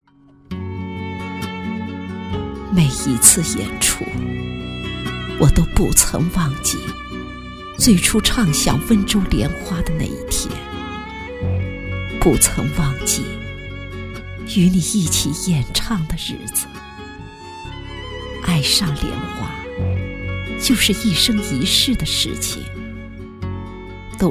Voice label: 动画 老人 老人角色旁白

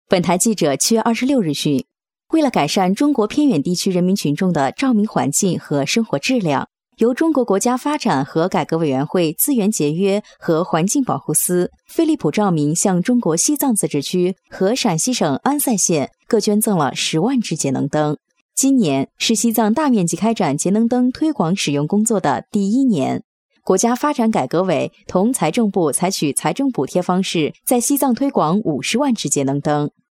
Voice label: 环境新闻

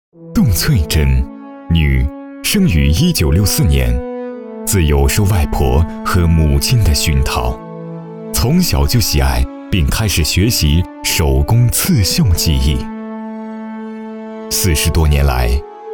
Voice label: 专题 人物 浑厚, 男; 杜翠珍

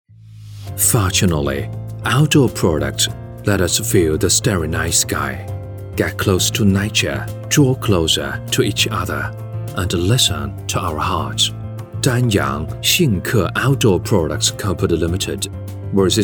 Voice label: 男英 外语 国内英语 宣传片公司简介 大气

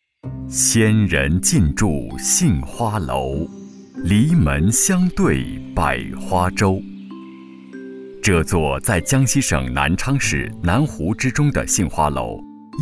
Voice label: 纪录片 人文历史; 杏花楼

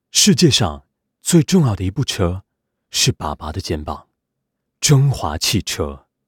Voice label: 动画 角色 台湾腔, 男国; 中华汽车

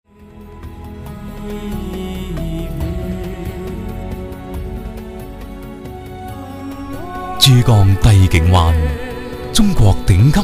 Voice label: 男粤 广告 地产 成熟; 粤语; 珠江帝景

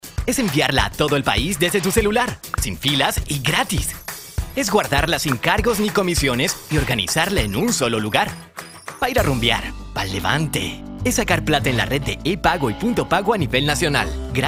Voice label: 西班牙语男 外语 小语种

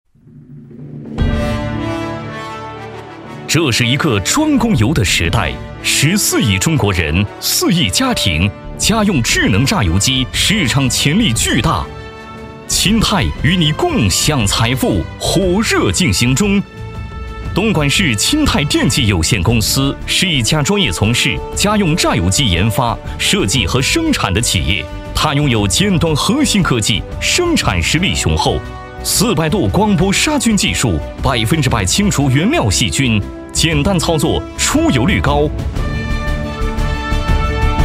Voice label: 男国 宣传片 企业 大气; 东莞电气公司

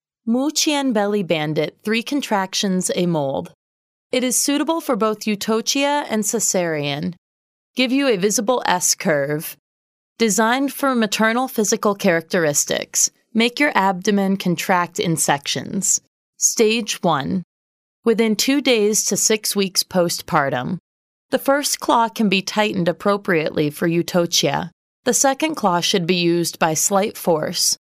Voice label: 外籍女英 外语 美式英语 产品介绍 自然

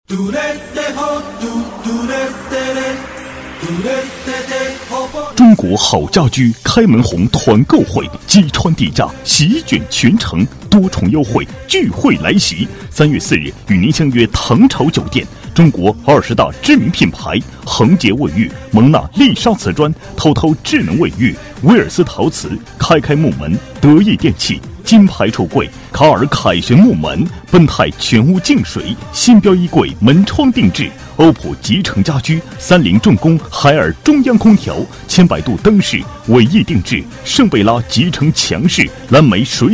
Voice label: 广告 促销 家具 成熟, 男国

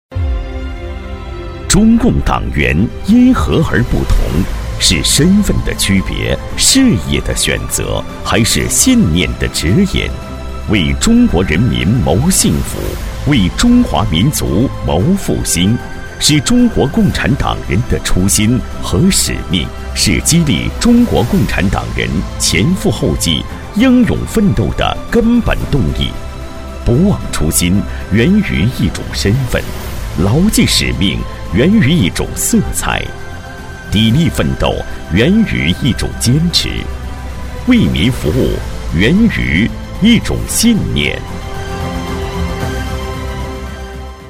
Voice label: 男国 专题 党建 磁性; 达孜区不忘初心牢记使命

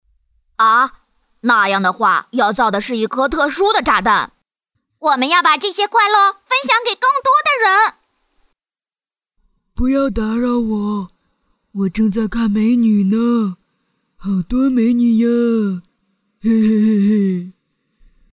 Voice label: 动画 模仿 机器猫 小丸子 蜡笔小新

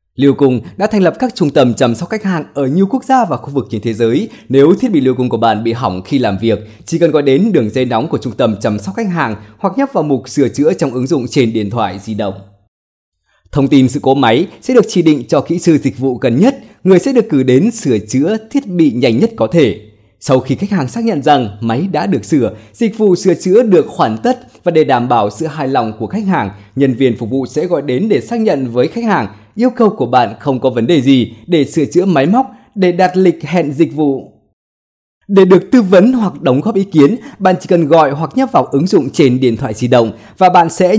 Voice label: 越南语男 外语 小语种 成熟

